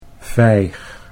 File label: "vijg", Dutch; 'fig'